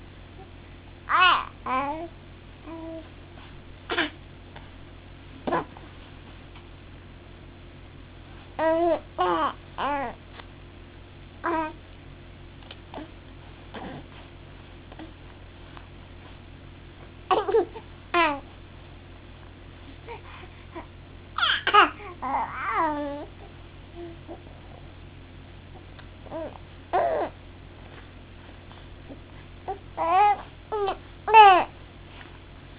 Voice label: getting frustrated